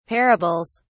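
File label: "parables", British English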